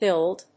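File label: "billed", English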